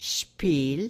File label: "spiel", yiddish